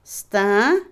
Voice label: Otomí, mujer; cabello, pelo; Cuerpo; INALI

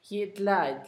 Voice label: tu piel, Zapoteco, Asunción Ocotlan; Posesivos; INALI

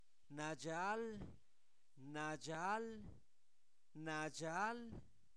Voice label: Zapoteco Albarradas, santo domingo albarradas; adjetivos; presumido; INALI

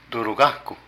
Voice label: Cuerda de pescar, Zapoteco de Petapa; Vocabulary

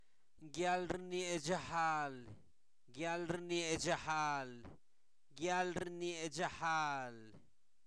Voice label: Zapoteco Albarradas, santo domingo albarradas; INALI; sustantivos; sermón

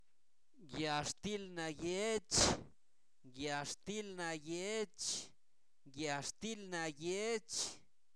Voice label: pan amarillo, Zapoteco, santo domingo albarradas; alimentos; INALI

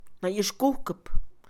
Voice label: preparar, Mixe, Mujer; Movimiento; INALI

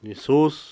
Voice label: "hermana", Cucapá; Parentesco

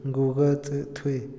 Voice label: "tribunal", Otomí, hombre; INALI

